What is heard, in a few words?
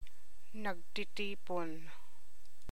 Ilocano